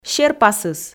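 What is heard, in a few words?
"серпасыс" Komi, female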